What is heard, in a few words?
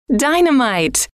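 "dynamite", female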